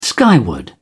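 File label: "skyward", British English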